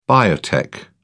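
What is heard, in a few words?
"biotech", British English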